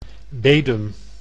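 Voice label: "Bedum", Dutch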